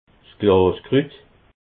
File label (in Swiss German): "glaskrut", alsace